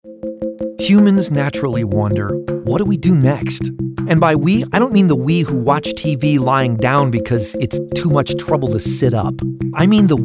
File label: NARRATIVE